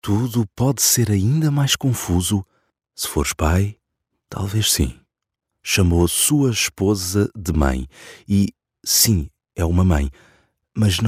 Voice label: portuguese voice character, Iberian / European Portuguese Male Voice